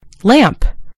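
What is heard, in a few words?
"lamp", British English; gcompris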